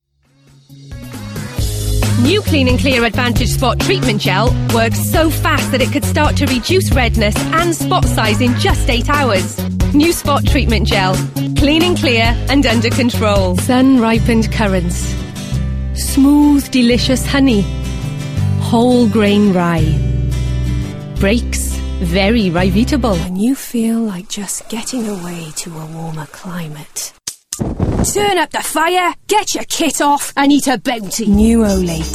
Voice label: Welsh